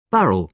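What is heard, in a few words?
"barrel", British English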